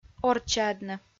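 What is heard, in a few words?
"орччаӧдны", Komi-Zyrian, female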